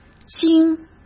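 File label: "经", Mandarin Chinese; chinese flashcards; hsk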